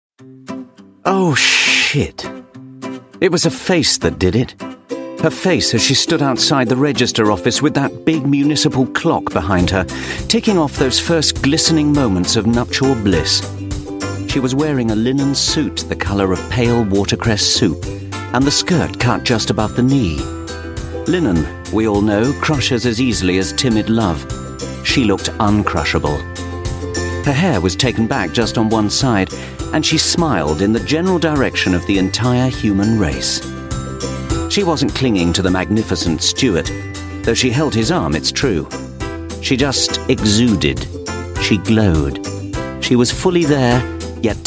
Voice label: audiobook